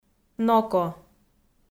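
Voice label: "ноко", Komi-Zyrian, female; audio dict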